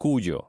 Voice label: "cuyo", mexico